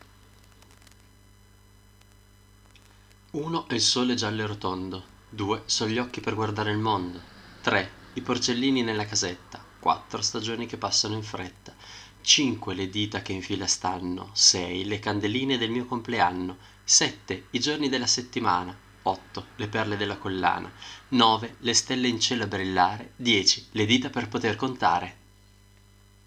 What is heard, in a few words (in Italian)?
filastrocca